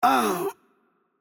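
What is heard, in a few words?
Hungarian hurt heavy voice; bloom minigame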